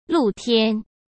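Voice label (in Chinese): "露天" Mandarin; hsk; chinese-flashcards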